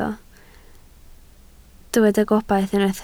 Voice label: "gobaith", Welsh